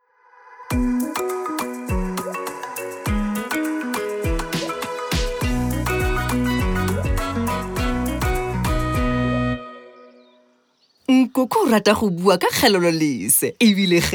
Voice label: Setswana; Sanitation; Treatment; DWS

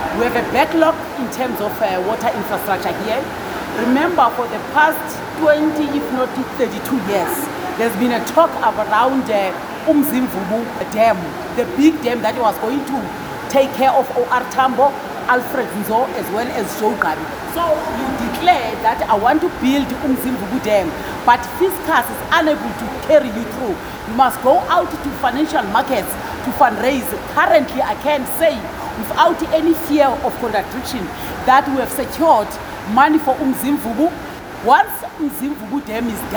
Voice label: English Minister; Majodina on uMzimvubu Dam

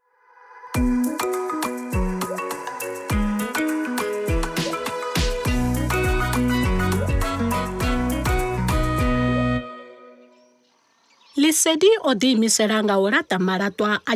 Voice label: TshiVenda; Sanitation; Service Providers; Disposal; DWS

